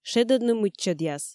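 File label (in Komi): "шедӧдны мыччӧдъяс", Komi, female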